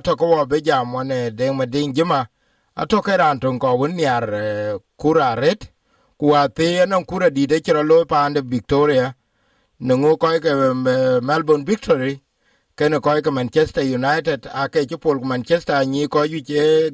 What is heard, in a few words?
dinka, podcast; Manchester United ee Melbourne Victory tiaam në ŋuan waar poolë keek në MCG yic; SBS Dinka